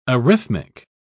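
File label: "arrhythmic", British English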